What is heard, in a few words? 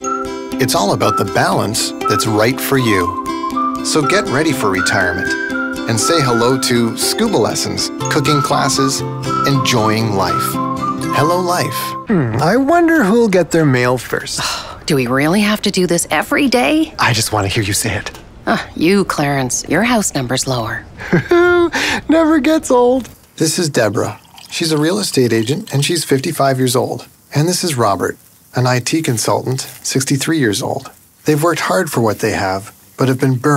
Commercial